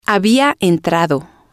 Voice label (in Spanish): "había entrado", Spanish